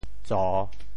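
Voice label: "tso3", Teochew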